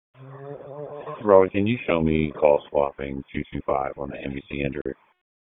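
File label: Raleigh Police Northeast District Dispatch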